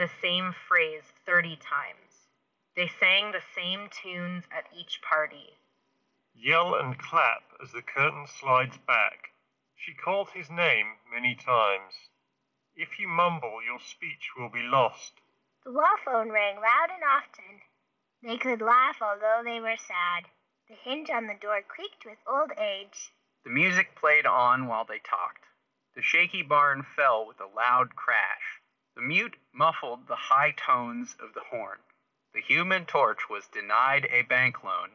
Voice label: Open Earbuds Ideal microphone sample; Bose Ultra

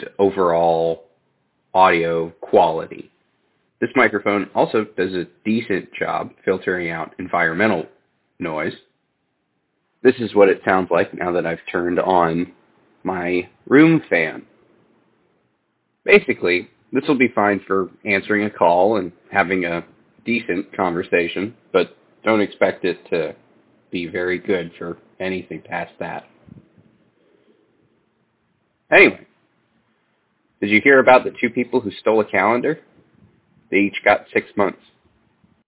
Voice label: Sennheiser CX True Wireless Mic sample

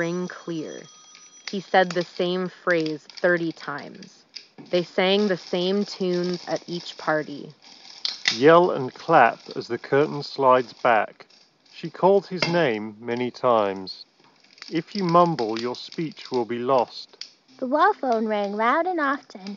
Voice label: Shure Aonic Free Office microphone sample

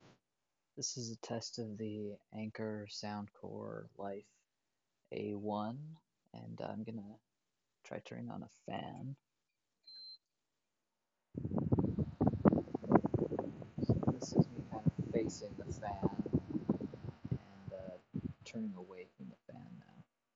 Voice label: Anker soundcore life a1 mic

